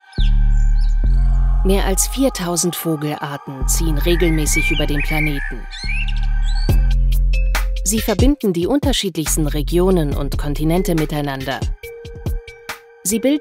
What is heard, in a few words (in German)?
Dokumentation; icarus